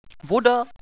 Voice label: water, radio bot

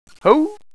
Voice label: whoa, radio bot

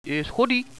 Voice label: stairs, radio bot

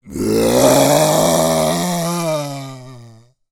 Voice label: zm radio

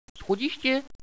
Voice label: stairwell, radio bot

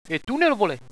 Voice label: tunnel, radio bot

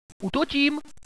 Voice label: attacking, radio bot

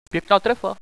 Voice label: good shot, radio bot

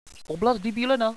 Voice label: area clear, radio bot

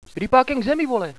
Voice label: get down, hostage reactions

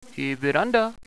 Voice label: porch, radio bot

